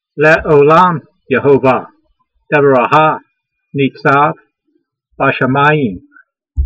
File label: voice, hebrew language